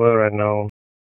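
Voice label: Police Spec Ops Tac, radio; Raleigh; Tac 4; wake; p25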